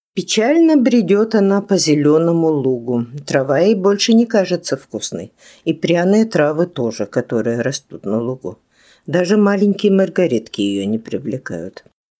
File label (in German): russisch